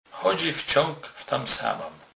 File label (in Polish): "wcag", Kurpie